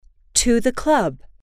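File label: to the club, English, female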